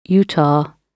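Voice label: "utah", British English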